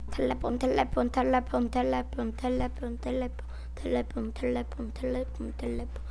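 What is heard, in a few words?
"telephone", spoken word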